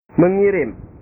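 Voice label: "mengirim", Indonesian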